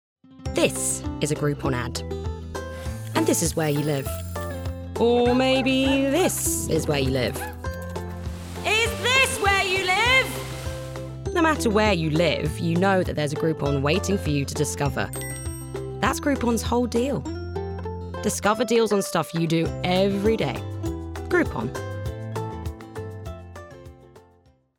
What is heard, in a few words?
female English commercial; Groupon; English southern accents